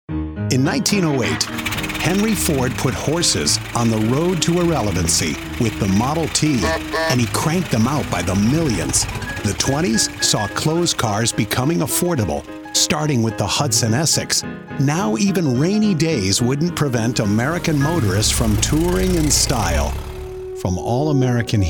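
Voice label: narration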